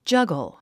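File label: "juggle", English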